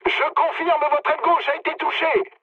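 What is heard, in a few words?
left wing, mood medium, French; War Thunder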